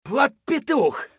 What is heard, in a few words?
scav battlecry; eft